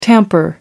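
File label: "tamper", British English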